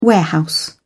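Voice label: "warehouse", English, British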